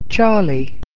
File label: "charlie", alphabet